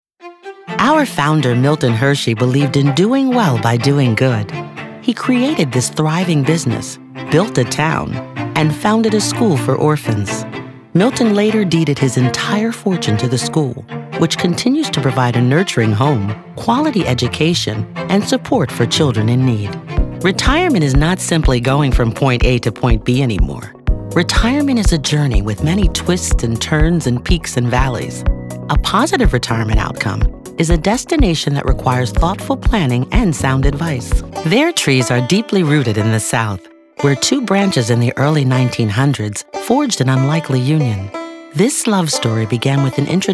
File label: Narration Reel